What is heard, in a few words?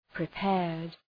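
"prepared", English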